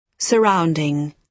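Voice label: "surrounding", British English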